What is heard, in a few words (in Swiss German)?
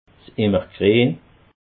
"immergrien", alsace